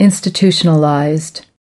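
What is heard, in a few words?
"institutionalized", US English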